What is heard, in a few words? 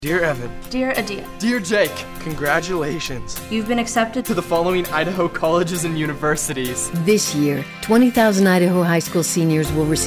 Radio; Next Steps Accepted